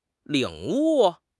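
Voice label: "领悟", Mandarin Chinese; HSK6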